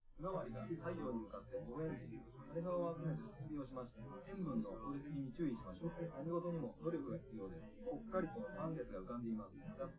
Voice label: male live rec; SiSEC10; underdetermined